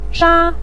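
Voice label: "sha", Mandarin Chinese, neutral tone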